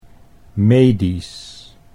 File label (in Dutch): "medisch", Dutch; 'medical'